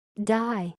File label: "die", English